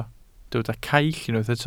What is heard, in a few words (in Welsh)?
"caill", Welsh